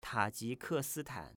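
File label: "Tajikesitan", Chinese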